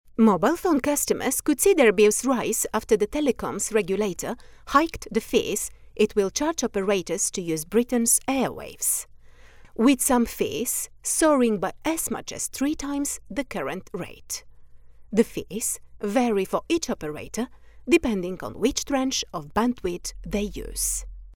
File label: English